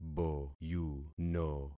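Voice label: "boyuno", concatenated syllables; Affixing experiment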